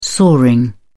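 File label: "soaring", British English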